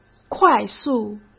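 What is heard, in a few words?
"快速", Mandarin Chinese; hsk; chinese-flashcards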